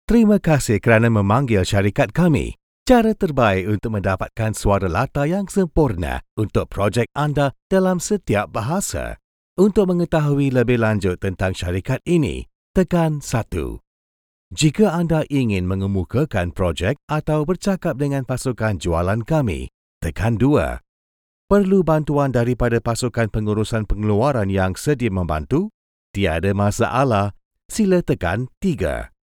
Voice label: male Malay IVR

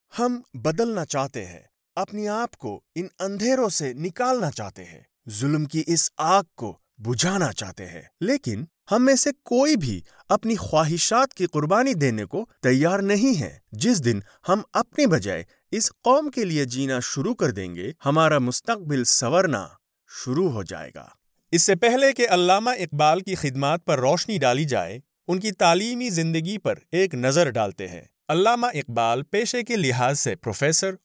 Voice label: Urdu Audiobooks

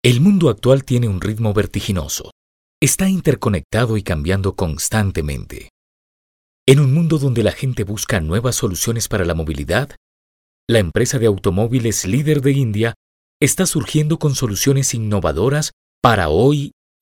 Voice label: Corporate Videos

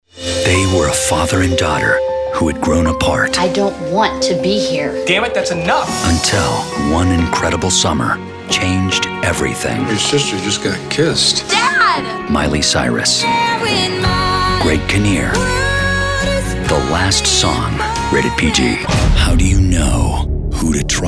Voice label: Trailers

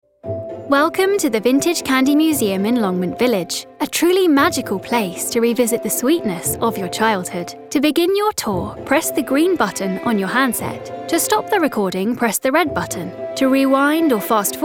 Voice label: Corporate

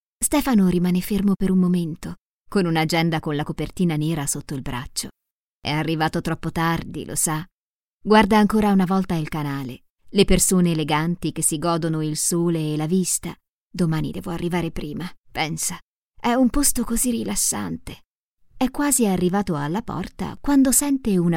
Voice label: ITALIAN AUDIOBOOKS

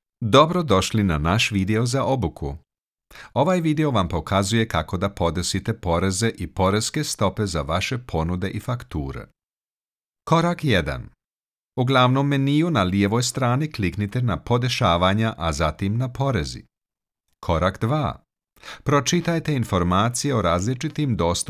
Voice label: SERBIAN

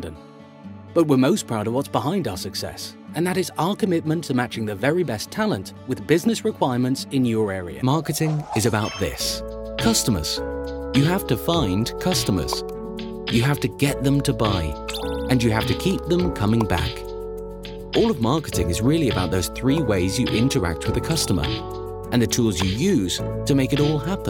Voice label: Explainer Videos

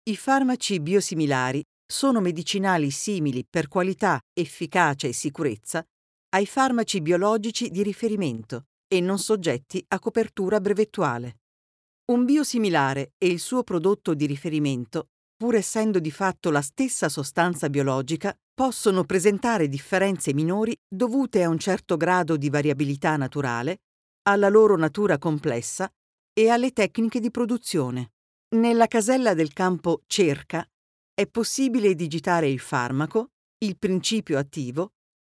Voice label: E-learning

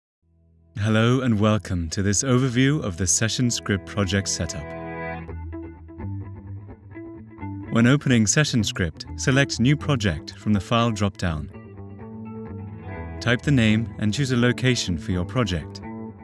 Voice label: E-learning

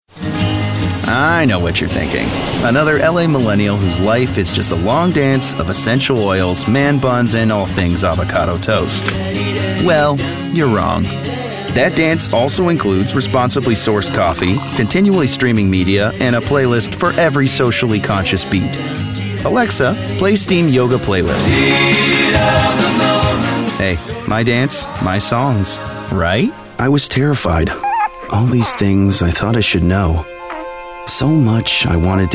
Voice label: Commercial

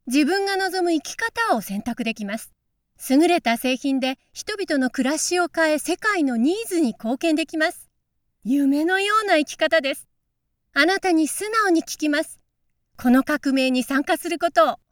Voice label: Casual Conversational

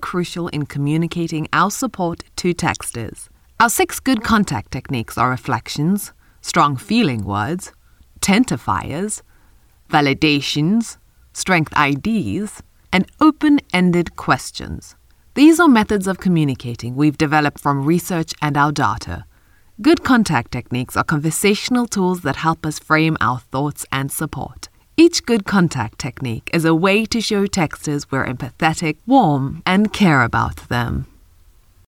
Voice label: Explainer Video South African Accent

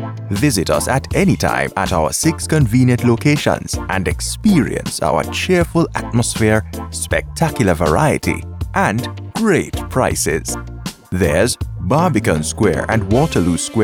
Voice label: Caribbean Male Deep Warm VO

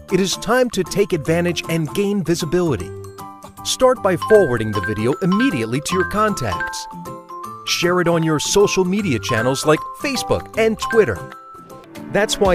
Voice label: Explainer Videos

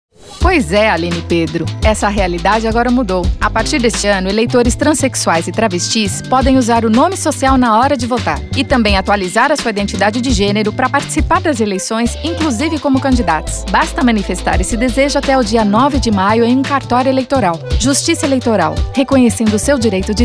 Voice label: Spot; TSE

